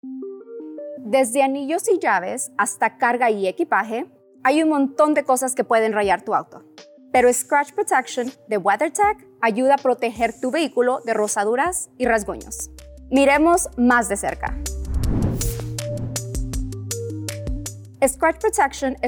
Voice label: Spanish; Scratch Protection